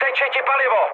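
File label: tank damaged, Czech, mood med; speech; War Thunder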